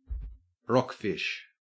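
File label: "rockfish", Australian English; pronunciations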